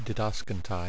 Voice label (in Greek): "didaskon", Greek